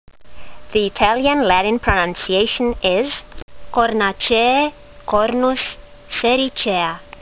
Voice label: latin; Cornus sericea